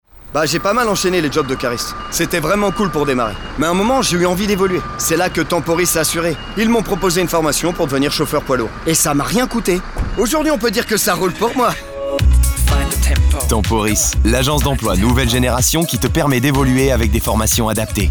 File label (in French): SPOT; TEMPORIS; CHAUFFEUR